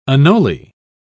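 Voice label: "anole", British English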